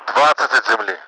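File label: twenty ground, Russian; Mi-8MT; DCS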